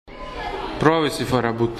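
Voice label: "farabut", Croatian dialect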